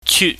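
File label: "chyut", Cantonese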